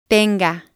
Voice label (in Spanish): "tenga", spanish